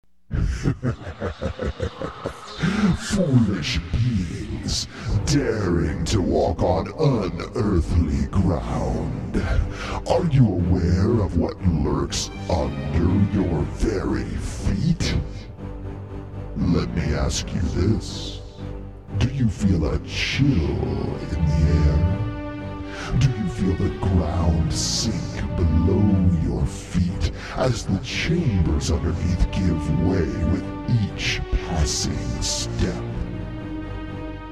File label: Intro, voiceover